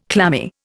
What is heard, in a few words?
"clammy", Indian